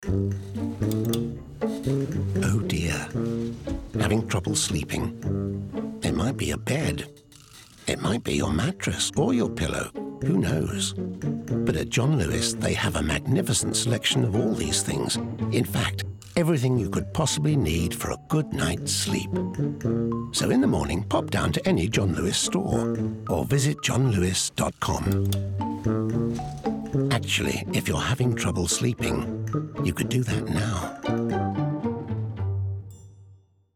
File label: Voicetape Commercial; John Lewis